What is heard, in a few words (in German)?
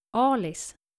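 "alis", dänische Mädchennamen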